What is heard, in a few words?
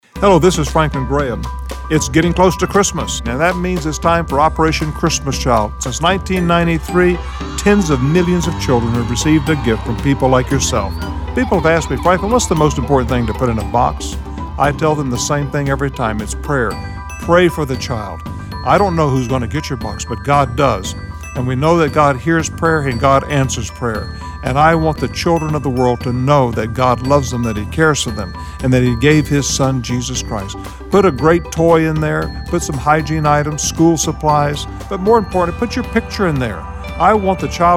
PSA; Franklin Graham